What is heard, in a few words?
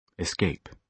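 "escape", British English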